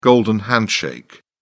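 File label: "golden handshake", British English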